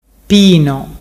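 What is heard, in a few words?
"pino", Ligurian dialect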